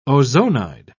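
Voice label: "ozonide", British English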